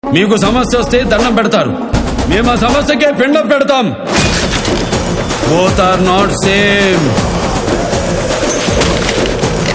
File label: Dialogue Ringtone; Akhanda Movie Trailer; Balakrishna